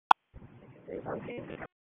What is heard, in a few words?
PS TAC, p25